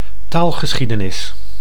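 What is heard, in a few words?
"taalgeschiedenis", Dutch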